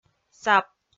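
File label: "сап", Komi, female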